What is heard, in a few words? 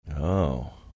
quietly saying "oh"